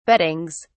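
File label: "beddings", English, British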